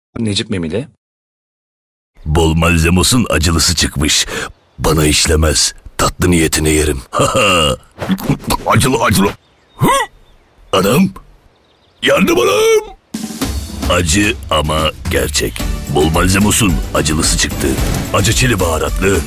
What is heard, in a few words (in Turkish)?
animasyon